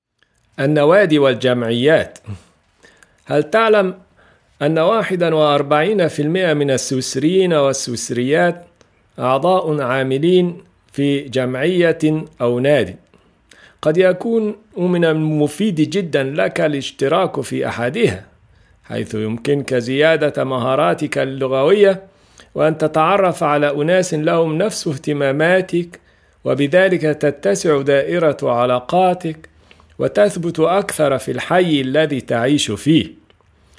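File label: Arabic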